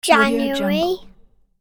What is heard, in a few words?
boy says "january"